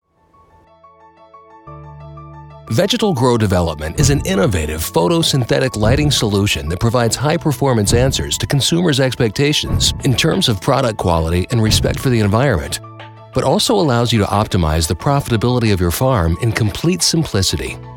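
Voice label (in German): Produktvideos Jugendfrei, English, male; NATIVE PROFISPRECHER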